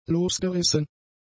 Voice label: "losgerissen", German; adjectives